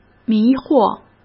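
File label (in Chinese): "迷惑", Mandarin Chinese; chinese flashcards; hsk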